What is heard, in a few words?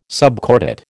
"subcordate", American